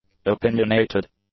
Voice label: "opinionated", English; adjectives